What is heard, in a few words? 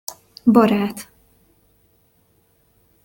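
"barat", Hungarian pronunciation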